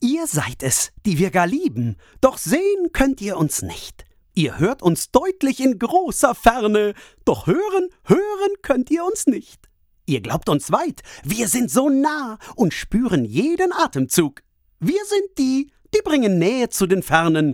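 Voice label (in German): froehlich, Sprecher, Männer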